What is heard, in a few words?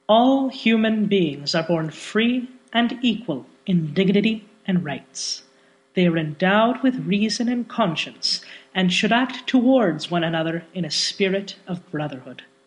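English Canadian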